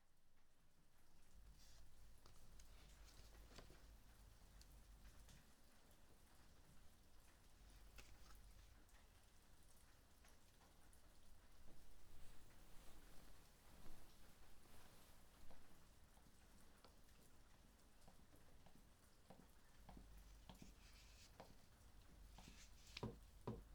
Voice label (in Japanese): ご主人様をマッサージ, ASMR; メイドさんはおとこの娘 梅雨の昼(フォーリーサウンド)